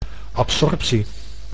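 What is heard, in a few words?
"absorptie", Dutch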